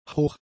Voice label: "hoch", German; adjectives